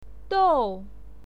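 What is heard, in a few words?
"dou", Mandarin Chinese, fourth tone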